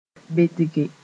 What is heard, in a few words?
"bendge", Mooré